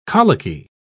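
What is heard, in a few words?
"colicky", British English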